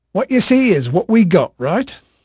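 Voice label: English male, voice; Pirates Of The Caribbean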